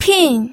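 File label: "pin", Mandarin Chinese, fourth tone; pinyin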